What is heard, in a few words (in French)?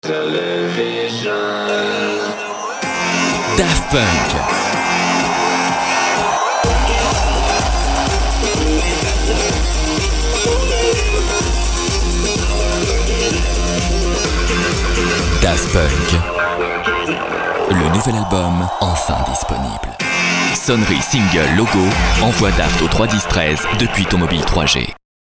promo grave, Voix off disque